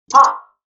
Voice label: grunt; gatekeep